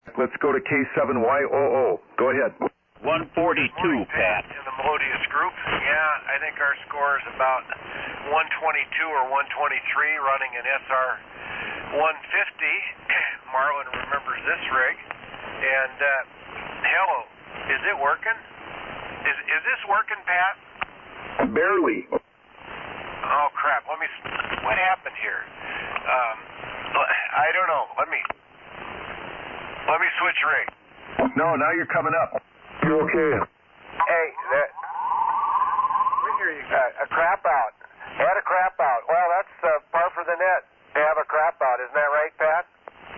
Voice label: Winona, WI. Drake TR-4, vintage ssb

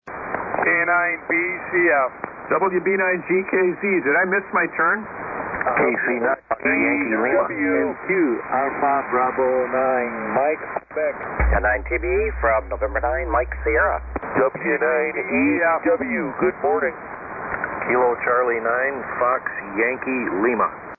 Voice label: SSB Net Late Check Ins

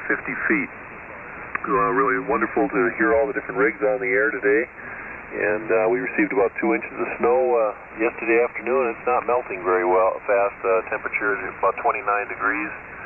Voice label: Drake T-4XC R-4C & Shure 444 Mic; Somerset, Wi.

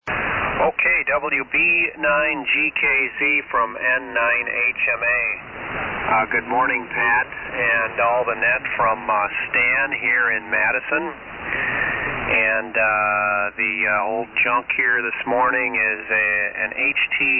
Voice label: Hallicrafters HT-37 Collins 74A-4; Madison, Wi.

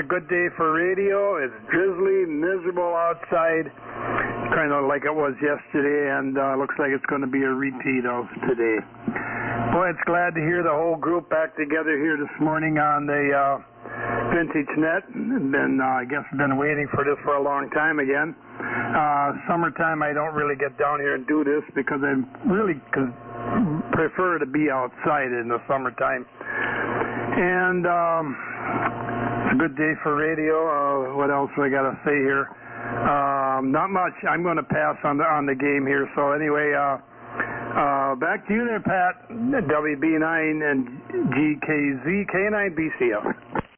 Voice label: Yaesu FT-101EE Yaesu YD-844 Mic & Homebrew Amp; Greenleaf, Wi.

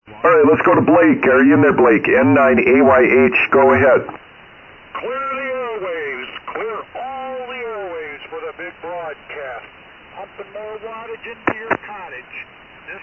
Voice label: Swan 500-CX Shure 444 Mic; Lombard,IL.

